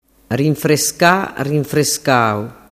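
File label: "rinfrescascau", Sicilian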